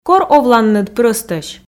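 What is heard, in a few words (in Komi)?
кор овланныд прӧстӧсь, Komi, female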